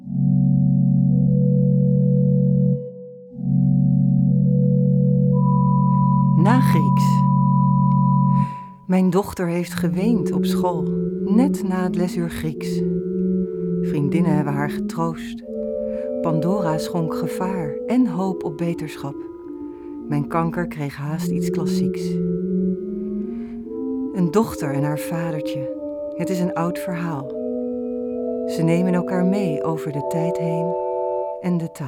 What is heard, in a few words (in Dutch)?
gedicht- muziek